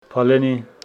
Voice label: Homshetsi cherry tree

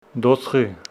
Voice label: Homshetsi buxus

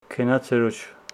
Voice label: Homshetsi you did not go

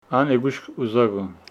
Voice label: Homshetsi he wants to come

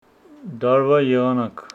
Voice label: Homshetsi season

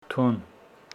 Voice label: Homshetsi you

